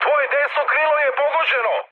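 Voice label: Serbian right wing mood high; speech; War Thunder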